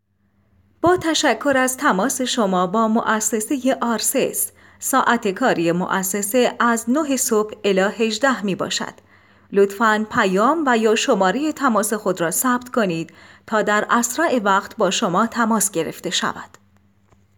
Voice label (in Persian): صدای خانم